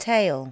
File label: "tail", L2 English